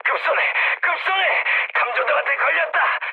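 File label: Korean searchlight mood med, speech; War Thunder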